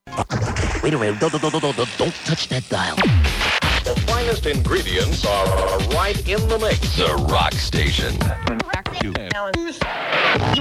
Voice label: Sweeper; KEGL 97-1 The Eagle